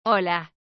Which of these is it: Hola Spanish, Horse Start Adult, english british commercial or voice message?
Hola Spanish